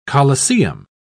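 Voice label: "coliseum", British English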